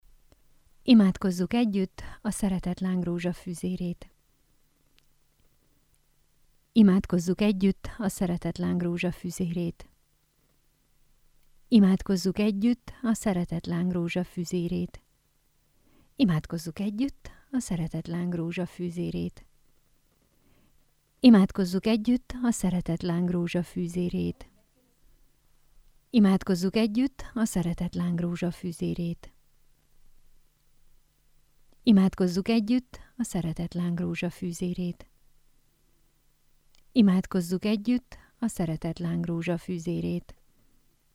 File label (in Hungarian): Jingle szoveg; Szeretetlang